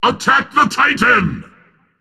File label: Attack M; smite; hades